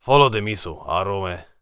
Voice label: warrior conversation emotion neutral